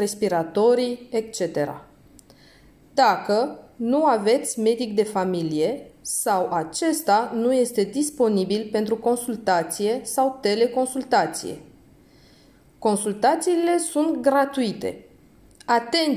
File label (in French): Roumain covid, informations multilingues; Message en Roumain; Paris-19